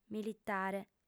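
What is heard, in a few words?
"militare" Sardinian, female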